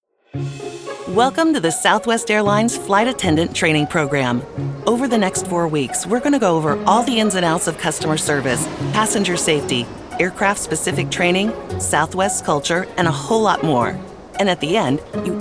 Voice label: e-learning, commercial voiceover; Narration, eLearning